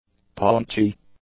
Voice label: "paunchy", English; adjectives

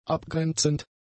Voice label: "abgrenzend", German; adjectives

